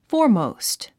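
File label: "foremost", English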